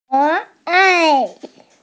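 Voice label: "ke3 ai4", Mandarin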